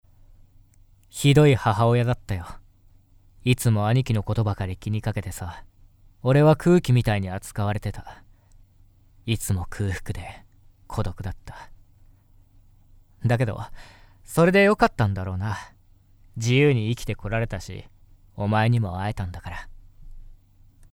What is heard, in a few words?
male